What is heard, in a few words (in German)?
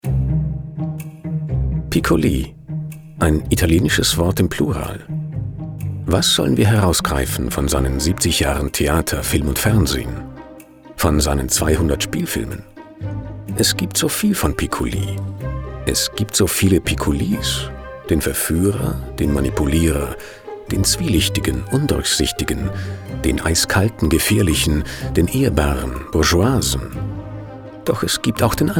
Dokumentation; Piccoli